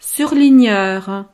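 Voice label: "surligneur", French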